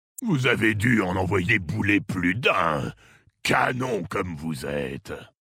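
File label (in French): French Male Flirt; Orc Maghar; Canon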